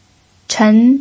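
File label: "chen", pinyin, second tone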